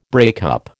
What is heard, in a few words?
"breakup", American